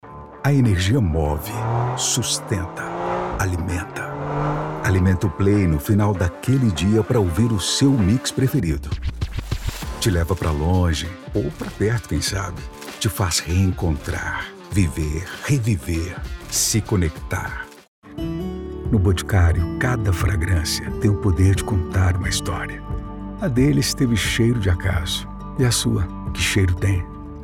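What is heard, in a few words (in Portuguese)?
Deep Voice Grave Classico, Locução Publicitária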